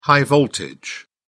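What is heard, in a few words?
"high-voltage", English, British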